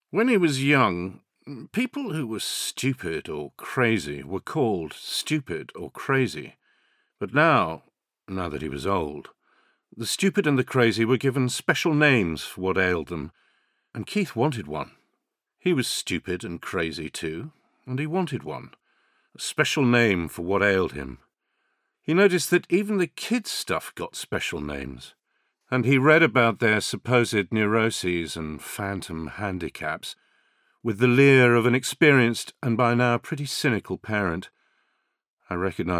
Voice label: Audiobook; Teens-20s; Good RP